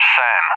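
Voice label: "SAM", English; UK; DCS; Allied Flight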